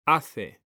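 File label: "hace", Spanish, male; mekanta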